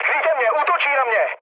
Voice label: aircraft damaged, speech cze, mood low; War Thunder